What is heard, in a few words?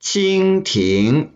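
"qing1ting2", Mandarin Chinese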